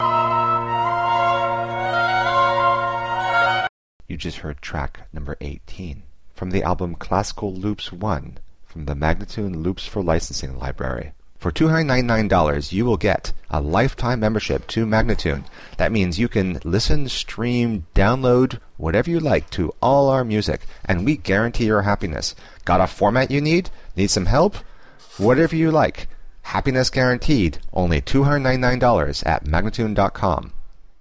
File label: american baroque spoken